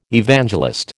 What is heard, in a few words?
"Evangelist", American